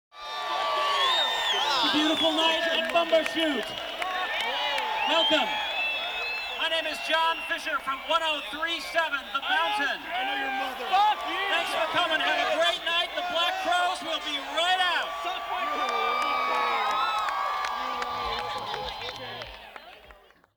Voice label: announcer